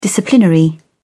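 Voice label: "disciplinary", English, British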